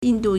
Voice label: "yin4 du4", Chinese